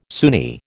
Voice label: "Sunni", American